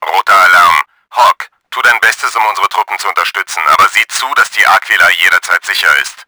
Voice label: RED ALERT, german; Battle Engine Aquila